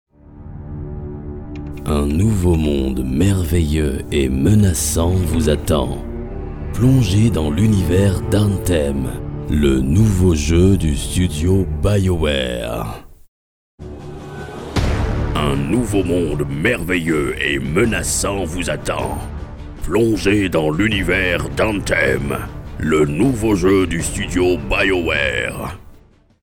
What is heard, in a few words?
FRENCH